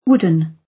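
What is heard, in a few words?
"wooden", British English; Time, history; Thời gian, lịch sử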